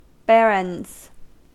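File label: "barons", US English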